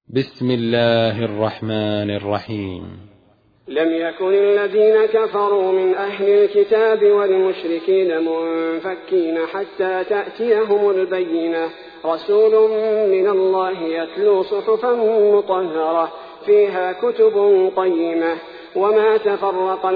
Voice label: ترتیل سوره بینه; الثبیتی

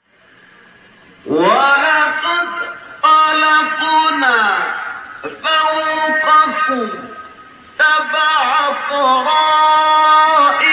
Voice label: تلاوت آیه 17 18 سوره مومنون; استاد سعید مسلم

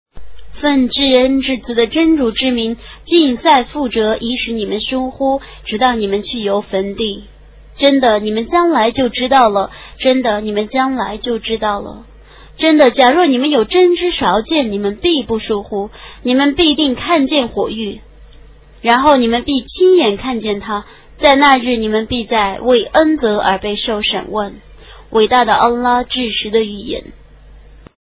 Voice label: ترجمه گویای قرآن کریم به زبان چینی; سوره تکاثر

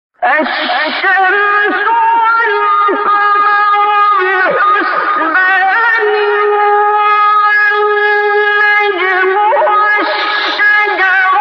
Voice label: فرازی دلنشین از تلاوت سوره الرحمن; استاد عبدالباسط

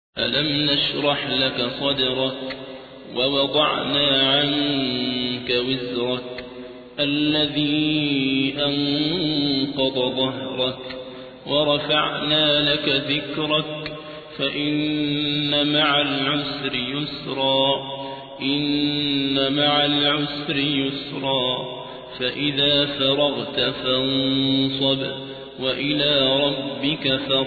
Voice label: ترتیل; صابر عبدالحکم; سوره انشراح

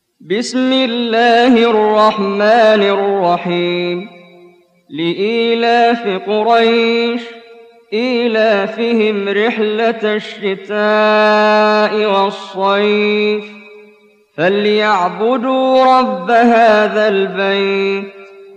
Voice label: ترتیل سوره قریش; استاد محمد جبریل

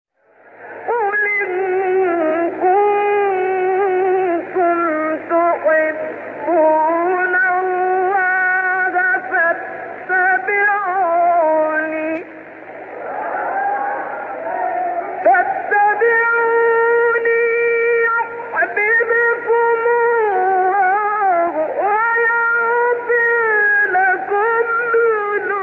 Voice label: تلاوت سوره آل عمران; شعبان عبدالعزیز صیاد

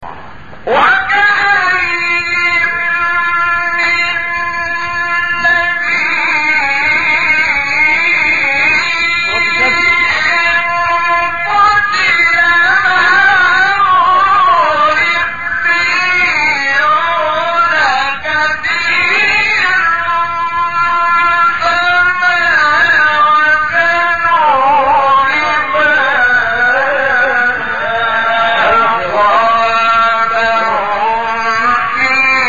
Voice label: تلاوت آیه 146 سوره آل عمران; استاد شحات محمد انور

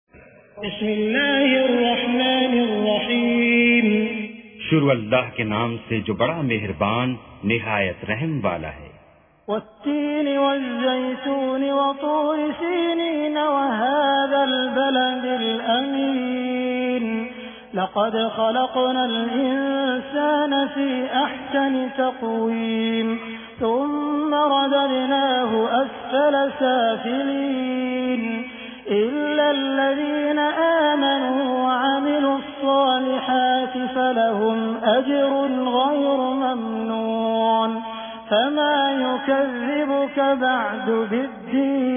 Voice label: ترجمه گویای قرآن کریم به زبان اردو; با تلاوت سدیس و شریم; سوره تین

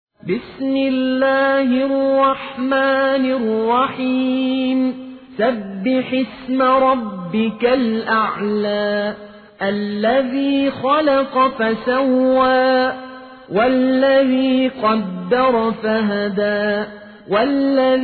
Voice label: سوره اعلی حفص عن عاصم

